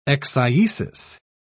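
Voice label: "eccyesis", British English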